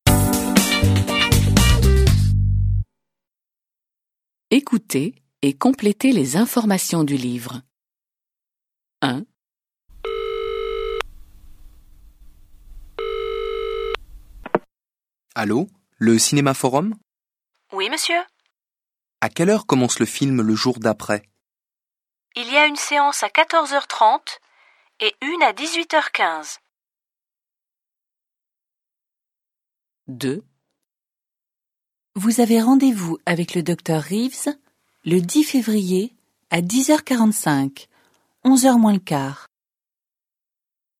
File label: French